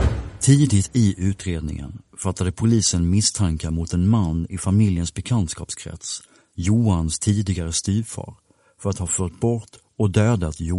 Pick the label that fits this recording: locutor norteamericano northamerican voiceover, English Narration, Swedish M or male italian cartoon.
Swedish M